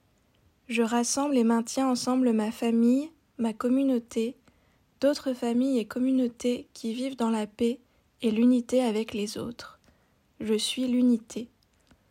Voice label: French Female; I Am Unity

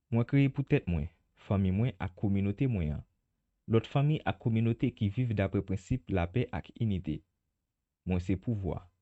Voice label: I Am Power, Creole Male

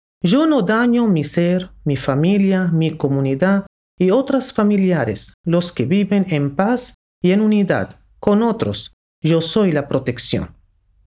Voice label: I Am Protection, Spanish Female

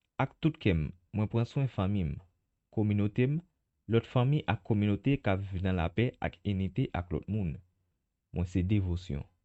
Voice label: Creole Male

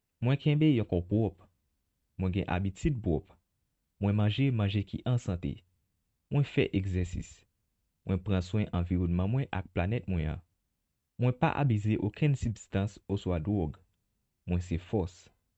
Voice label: I Am Strength Creole Male

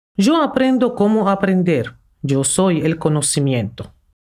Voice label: I Am Knowledge, Spanish Female

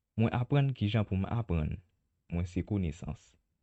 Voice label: I Am Knowledge, Creole Male

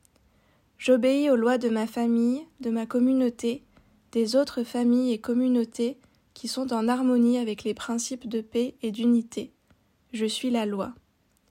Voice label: French Female

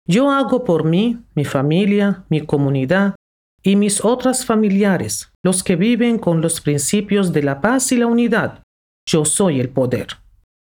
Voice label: Spanish Female

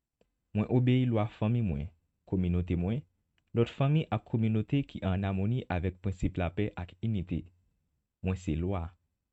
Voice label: I Am Law Creole Male

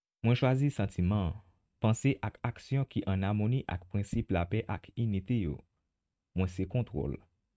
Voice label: I Am Control Creole Male

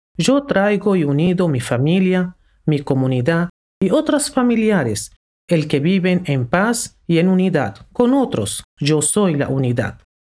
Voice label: Spanish Female; I Am Unity

